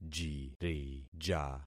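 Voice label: "jirija", concatenated syllables; Affixing experiment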